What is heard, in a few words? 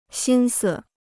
"心思", Chinese